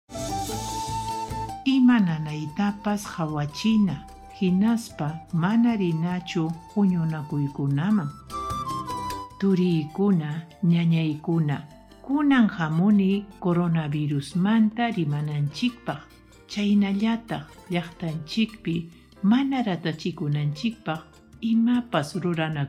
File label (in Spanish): quechua chanka, spot de radio; atender sintomas y evitar eventos festivos; sospecha o confirmacion de contagio